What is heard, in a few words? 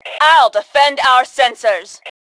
Self Defend Sensors, Female; T2 Female 01